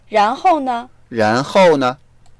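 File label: "ran2hou4ne", Mandarin Chinese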